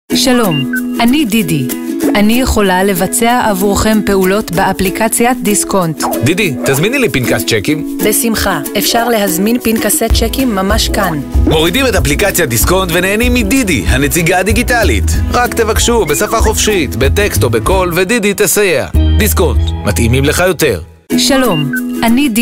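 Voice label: hebrew interactive voice response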